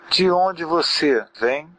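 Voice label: where from, Brazilian Portuguese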